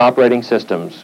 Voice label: LoFi Words, LoFi Vocals

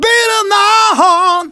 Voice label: Phrase Male; Vengeance Samples

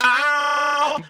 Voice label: male fx vox, vocal house, d; PS-28 Vocal House Samples

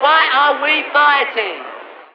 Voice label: LoFi Phrases, LoFi Vocals; Vengeance Samples

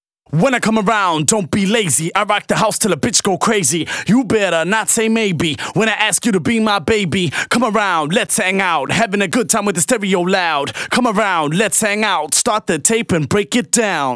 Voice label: Rap Vocals DRY, 128 BPM; Vengeance Samples; Vocal-Hook Kits; Song Kit

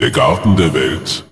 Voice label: LoFi Phrases, LoFi Vocals; Vengeance Samples

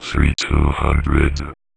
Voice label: Vocoder Words; Vengeance Samples

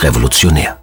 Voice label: LoFi Words, LoFi Vocals

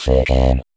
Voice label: Vocoder Words; Vengeance Samples

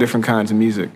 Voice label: LoFi Phrases, LoFi Vocals; Vengeance Samples

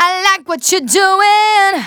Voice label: Phrase Female; Vengeance Samples; Phrases

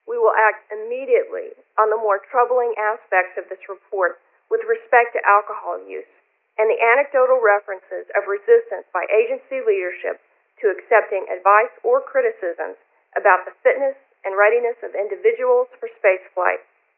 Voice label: LoFi Phrases, LoFi Vocals; Vengeance Samples

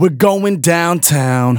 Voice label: Phrase Male Rap; Vengeance Samples

